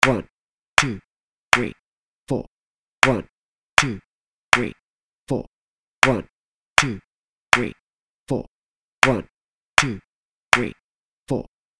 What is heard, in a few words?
counting and clapping